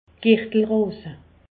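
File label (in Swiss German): "girtelross", Alsace, Haut Rhin; medecine; zona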